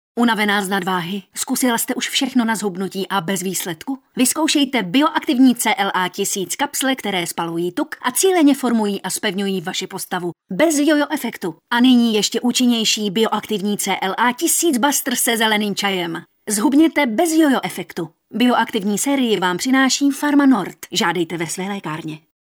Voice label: female czech voice over sales

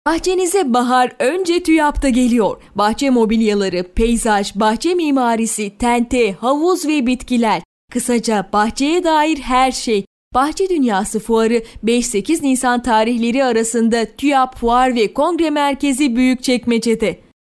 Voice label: female turkish voice over sales

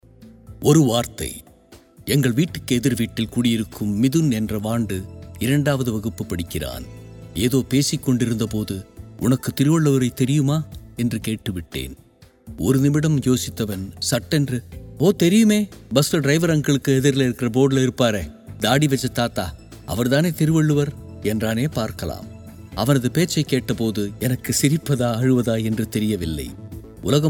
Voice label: male tamil voice over talent upbeat

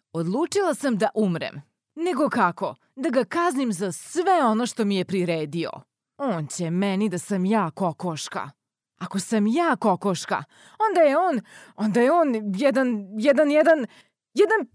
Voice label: female serbian voice over character

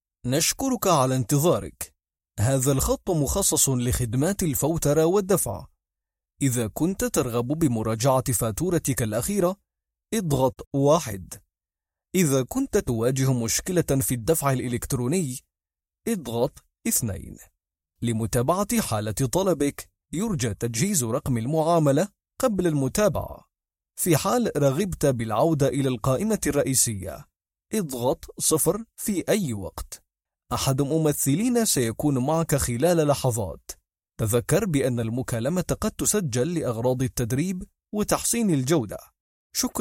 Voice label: male arabic voice over narrative

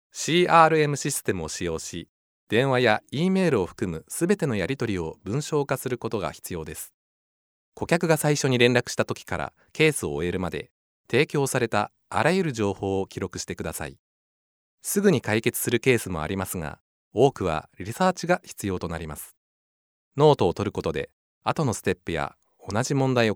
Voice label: male japanese voice over talent narrative

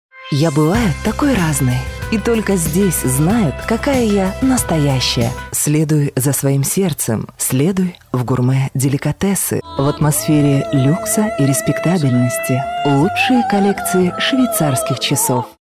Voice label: female russian voice over sales

